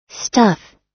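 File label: "stuff", US English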